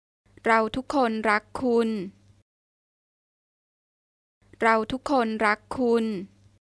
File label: We all love you, Thai